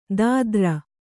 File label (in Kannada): "ದಾದ್ರ", Kannada